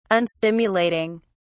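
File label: "unstimulating", British English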